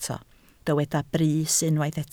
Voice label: "brys", Welsh

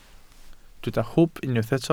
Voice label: "hwb", Welsh

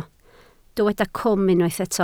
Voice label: "cwm", Welsh

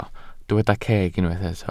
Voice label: "ceg", Welsh

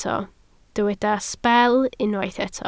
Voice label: "sbel", Welsh

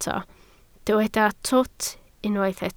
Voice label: "twt", Welsh

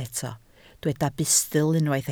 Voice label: "bustl", Welsh

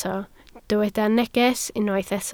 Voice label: "neges", Welsh

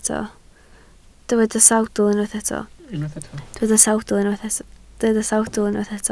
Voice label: "sawdl", Welsh

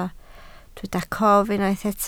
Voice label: "cof", Welsh

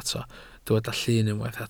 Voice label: "llyn", Welsh

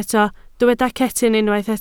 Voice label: "cetyn", Welsh

